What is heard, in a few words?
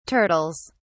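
"turtles", British English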